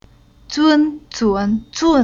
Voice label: "Zun", Chinese